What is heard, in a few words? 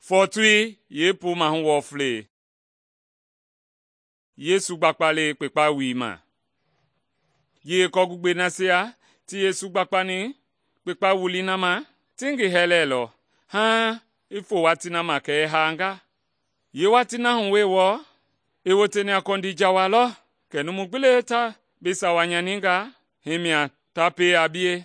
mende bsl nt drama; good news mende kpa